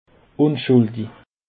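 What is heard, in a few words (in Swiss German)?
"unschuldich", Alsatian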